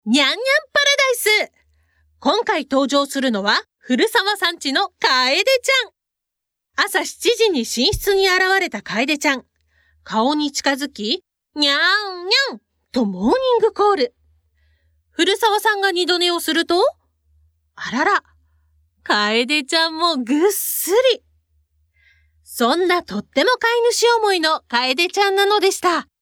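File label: narration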